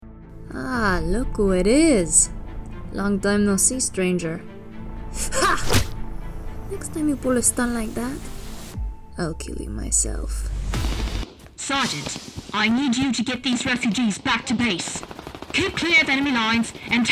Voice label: video game reel, american